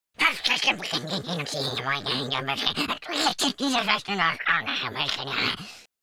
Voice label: angry, Italian

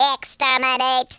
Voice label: fire, speech, Alien; Worms3D

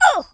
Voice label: oof, Drill Sergeant; speech

